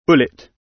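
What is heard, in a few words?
"bullet", British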